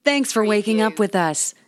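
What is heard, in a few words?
News Female Thanks Waking Up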